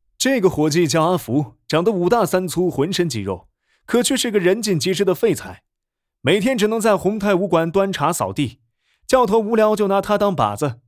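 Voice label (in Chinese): 电影解说动作男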